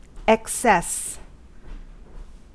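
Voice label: "excess", US English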